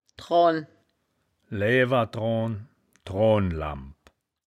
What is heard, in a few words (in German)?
"droan", woerterbuch, Wittlich